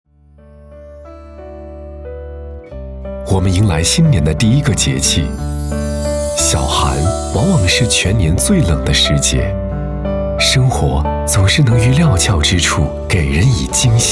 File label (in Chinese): 男 电台台宣 小寒 新年第一个节气